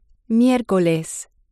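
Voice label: "miercoles", Spanish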